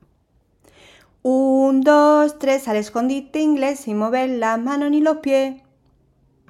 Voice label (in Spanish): Juego castellano Escondite